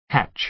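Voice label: "hatch", American English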